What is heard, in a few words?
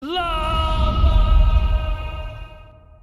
screams llaaaaaaaaamaaaaaaaaaa; dinnerbone